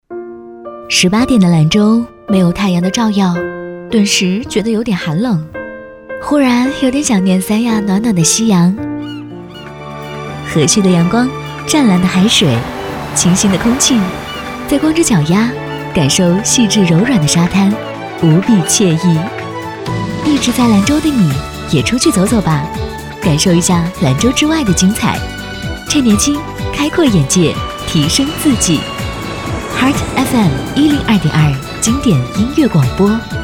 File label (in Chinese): FM1022报时-俏皮清新